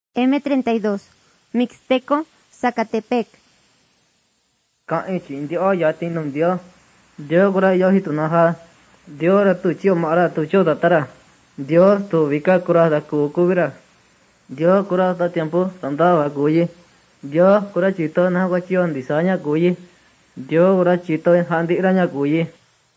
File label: mixtec diagnostic spanish mexico